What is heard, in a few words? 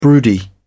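"broody", British English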